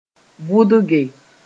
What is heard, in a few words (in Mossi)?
"vʋdge", moore; to pierce